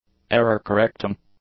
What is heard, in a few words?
"error-correcting", English; nouns